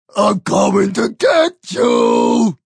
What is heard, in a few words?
Coming To Get You, Male; Talking Sound Effects